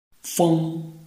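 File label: "feng", Chinese, tone 1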